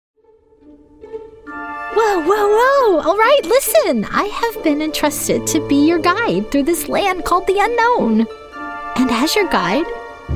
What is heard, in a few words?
Character